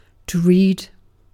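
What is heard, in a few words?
"read", British English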